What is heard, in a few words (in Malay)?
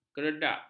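"keredak", Malay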